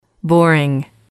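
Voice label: "boring", female, English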